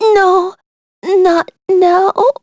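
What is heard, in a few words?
Vox Dead, English; Jane L; kings raid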